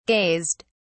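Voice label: "gazed", British English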